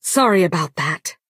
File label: Bosmer female sorry